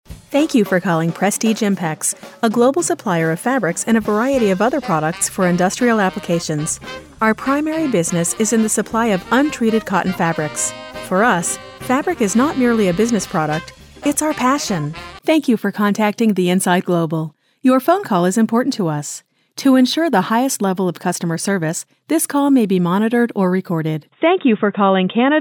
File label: US voicemail